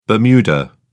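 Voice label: "bermuda", British English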